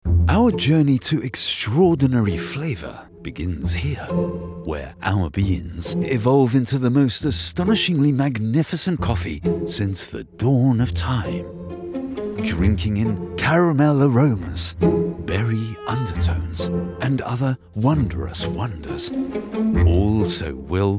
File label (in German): Werbung tea; harrogate